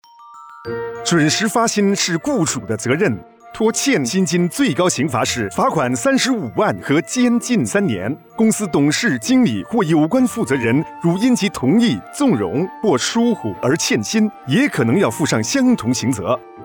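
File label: Mandarin; 拖欠薪金属违法 准时出粮应尽责; 普通话; 电视宣传短片及电台广播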